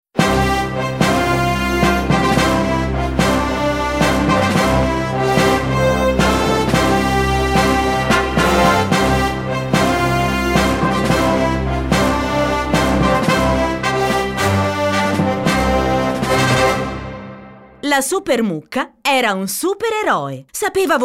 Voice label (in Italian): audiolibri